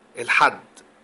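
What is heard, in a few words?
sunday Arabic Egyptian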